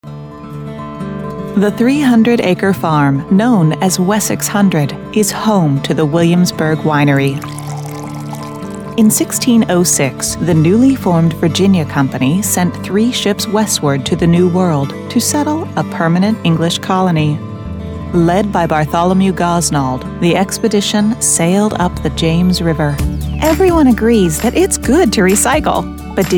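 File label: Corporate Narration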